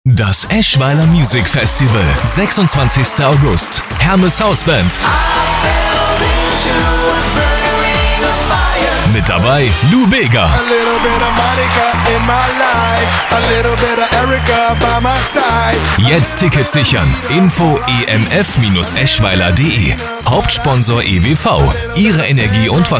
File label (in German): Radiospot; EMF 2017